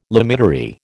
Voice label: "limitary", American

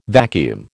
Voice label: "vacuum", American

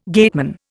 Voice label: "gateman", Indian

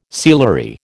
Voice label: "sealery", American

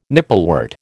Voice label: "nipplewort", American

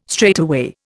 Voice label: "straightaway", Indian

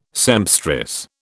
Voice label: "sempstress", British

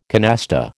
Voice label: "canasta", American

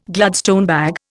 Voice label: "Gladstone bag", Indian

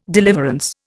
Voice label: "deliverance", Indian

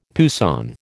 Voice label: "poussin", American

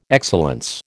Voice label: "excellence", American

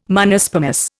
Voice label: "Monospermous", Indian

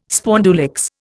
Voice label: "spondulicks", Indian

